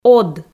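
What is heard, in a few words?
"од", Komi, female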